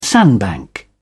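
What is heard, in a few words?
"sandbank", British English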